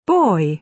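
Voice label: "boy", British English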